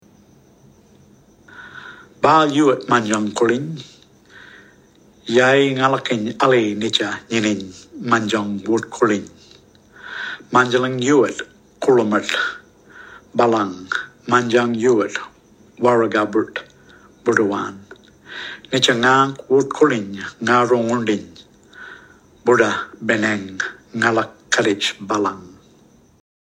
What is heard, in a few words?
Noongar Ode only